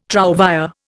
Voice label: "trouvÊre", Indian